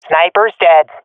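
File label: sees sniper killed, swat female; vo; Counter Strike Model Pack; CS:GO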